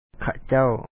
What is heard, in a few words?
"ຂະເຈົ້າ", Lao; khaca1w they